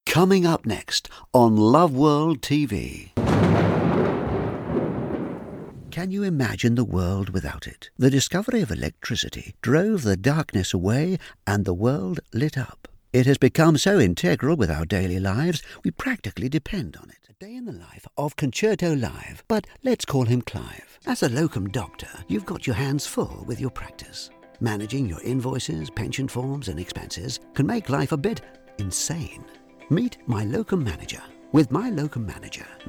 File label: Voiceover showreel